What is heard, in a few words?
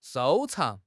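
"收藏", Mandarin Chinese; HSK6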